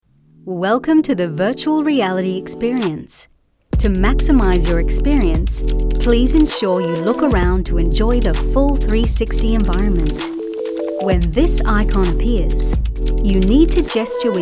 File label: English australian e-learning